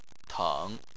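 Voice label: "teng", Chinese, third tone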